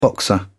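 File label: "boxer", English, British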